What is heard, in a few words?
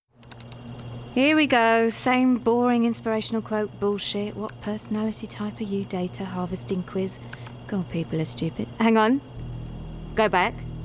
Gaming, London; Data Spy